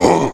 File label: orc hit; wesnoth